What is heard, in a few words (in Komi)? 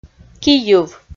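"кийыв", Komi, female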